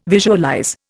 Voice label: "visualize", Indian